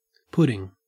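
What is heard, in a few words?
"pudding", Australian English; pronunciations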